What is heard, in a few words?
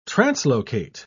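"translocate", British English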